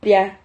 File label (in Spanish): "pria", Silabas